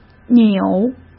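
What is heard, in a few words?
"扭" Mandarin Chinese; chinese flashcards; hsk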